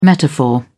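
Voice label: "metaphor", English, British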